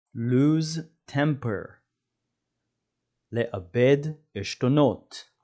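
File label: "lose temper" English, "לאבד עשתונות" Hebrew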